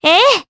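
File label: Vox Japanese; Artemia; kingsraid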